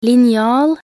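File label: "linjal", Norwegian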